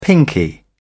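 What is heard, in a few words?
"pinkie", British English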